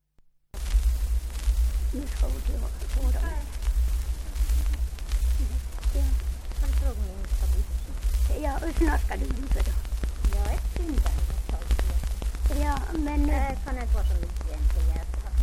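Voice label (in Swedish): Venjan Dalarna, dialekter; Folkminnen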